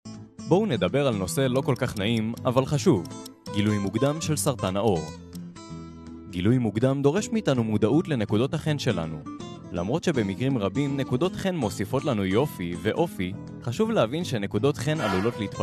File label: hebrew e-learning